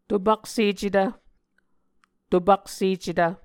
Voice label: "topaksecetv", Muscogee